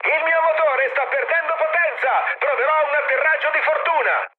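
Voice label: Italian damaged engine mood high, speech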